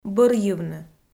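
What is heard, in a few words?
"бӧрйывны", Komi, female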